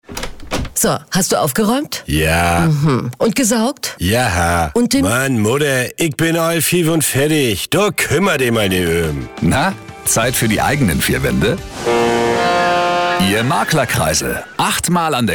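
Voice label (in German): Charge Akzent Werbung Witzig; Fremdtake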